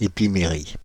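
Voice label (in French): "épimérie", French, Paris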